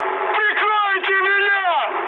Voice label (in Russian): aircraft damaged, mood high, speech ru